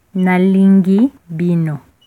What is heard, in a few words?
I love you, lingala